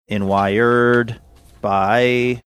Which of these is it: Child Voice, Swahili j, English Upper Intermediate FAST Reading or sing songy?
sing songy